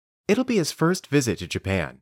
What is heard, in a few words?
Dictation